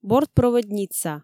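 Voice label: "бортпроводница" Komi, female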